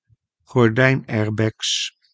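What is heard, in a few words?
"gordijnairbags", Dutch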